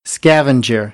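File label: "scavenger", American English; AmericanBritish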